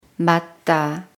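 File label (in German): "stimmt", German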